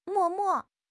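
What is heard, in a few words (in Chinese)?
"默默", Chinese; HSK6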